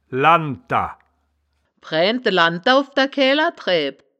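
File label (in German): "lanndtder", wittlicher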